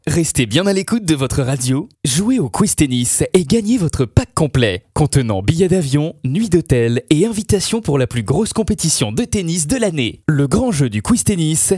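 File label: French, male, commercial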